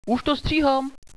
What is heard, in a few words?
defusing bomb, radio bot